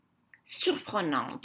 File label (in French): "Surprenante", French